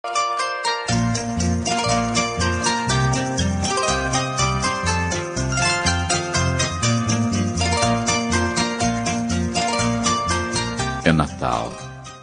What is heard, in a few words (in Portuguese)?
voz masculina; natal romantica; amizade